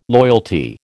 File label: "loyalty", American